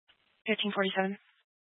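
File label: Police Dispatch, Scanner audio; Police 1 Dispatch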